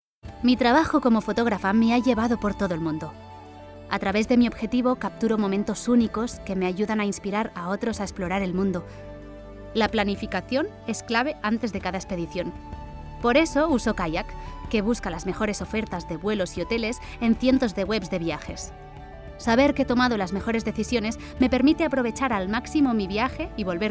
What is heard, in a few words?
female spanish travel comercial; ideasonora